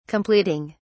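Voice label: "completing", British English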